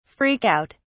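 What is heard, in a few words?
"freak out", British English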